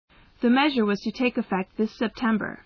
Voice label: M

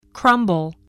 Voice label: "crumble", spelling

